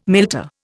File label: "milter", Indian